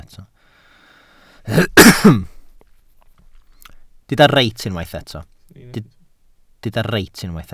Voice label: "reit", Welsh